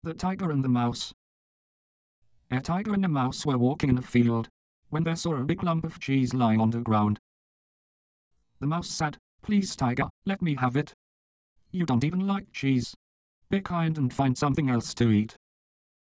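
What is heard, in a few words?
English male read, pitch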